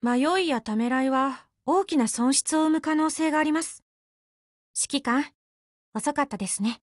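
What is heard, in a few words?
HELLO, Japanese; GF Alarm; WelrodMod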